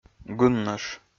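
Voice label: "гыннӧш", Komi-Zyrian, male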